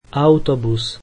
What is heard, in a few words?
slovak bus